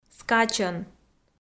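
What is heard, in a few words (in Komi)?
"скачӧн", Komi, female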